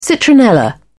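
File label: "citronella", British English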